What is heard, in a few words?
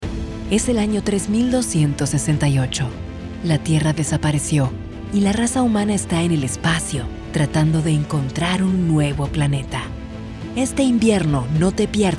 spanish latin american movie trailers; Buenos Aires, Argentina